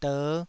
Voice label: "der5", Taiwanese syllable